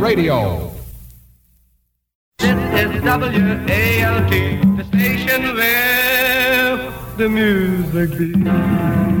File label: station promos; Lost Prod Trax; Tpa's No.1 Station; ca.1957